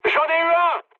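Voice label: French player killed enemy mood med; War Thunder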